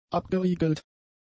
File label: "abgeriegelt", German; adjectives